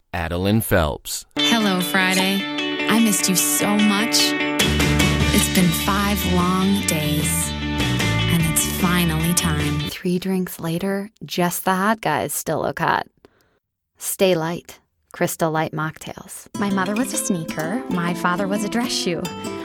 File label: Commercial